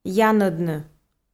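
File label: "янӧдны", Komi, female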